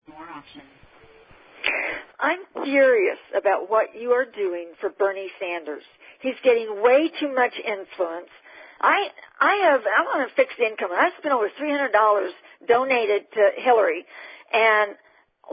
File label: Voice Mail